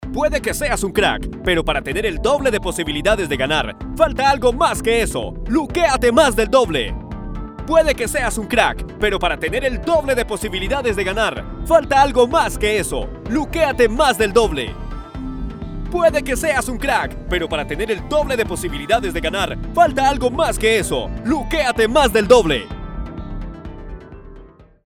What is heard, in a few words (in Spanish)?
locutor casting narrador deportivo; spots locutores